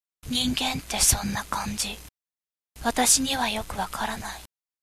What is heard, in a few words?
girl